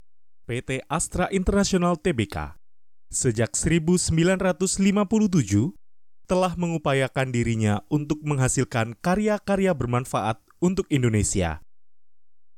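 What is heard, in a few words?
Voiceover Formal, Deep & Wholesome; Indonesia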